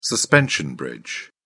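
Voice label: "suspension bridge", British English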